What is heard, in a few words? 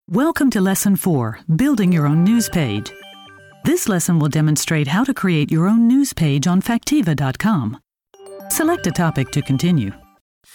English